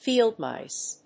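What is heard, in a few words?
"Field mice", English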